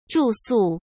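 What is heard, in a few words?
"住宿", Mandarin Chinese; hsk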